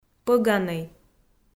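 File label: "пӧганӧй", Komi, female; kpv audio dict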